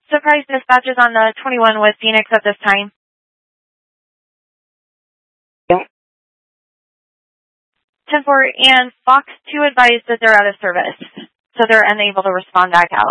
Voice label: Mutual Aid-Intersystem Interop B, scanner